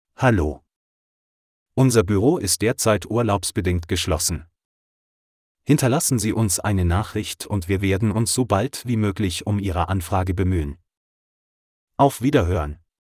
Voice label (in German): Urlaub generisch VM MALE